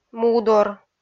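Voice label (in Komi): "мудор", Komi, female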